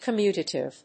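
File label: "commutative", English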